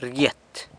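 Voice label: "rgyet", plays, San Lucas Quiaviní Zapotec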